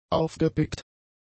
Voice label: "aufgepickt", German; adjectives

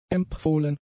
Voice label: "empfohlen", german; adjectives

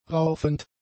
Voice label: "raufend", German; adjectives

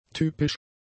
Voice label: "typisch", German; adjectives

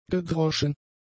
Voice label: "gedroschen", German; adjectives

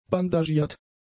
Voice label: "bandagiert", German; adjectives

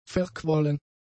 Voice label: "verquollen", german; adjectives

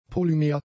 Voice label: "polymer", German; adjectives

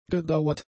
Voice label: "gedauert", German; adjectives

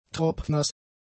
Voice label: "tropfnass", German; adjectives

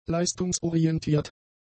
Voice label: "leistungsorientiert", German; adjectives

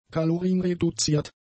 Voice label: "kalorienreduziert", German; adjectives

